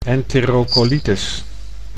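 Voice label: "entereocolitis", Dutch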